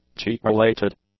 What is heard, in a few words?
"cheek-related", English; adjectives